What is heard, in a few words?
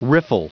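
"riffle", English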